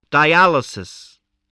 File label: "dialysis", english spelling